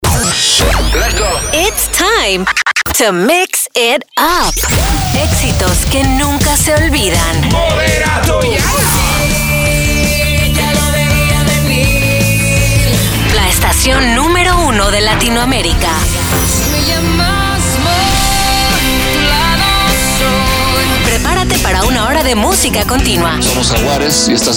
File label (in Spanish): Radio Imaging Spanish, female